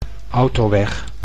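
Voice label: "autoweg", Dutch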